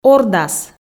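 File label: "ордас", Komi, female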